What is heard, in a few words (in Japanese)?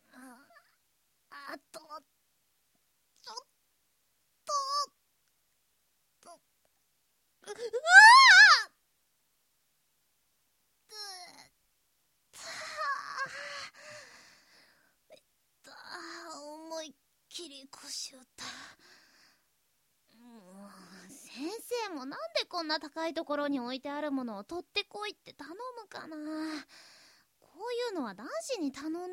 少女